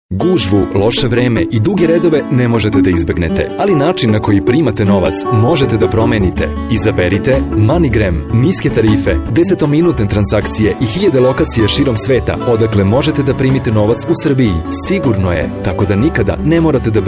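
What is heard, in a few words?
serbian male commercial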